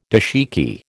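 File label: "dashiki", American English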